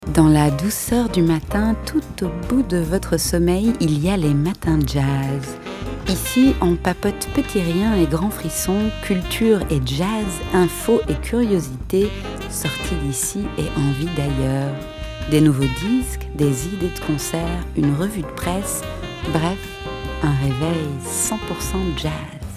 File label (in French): FR Matins Jazz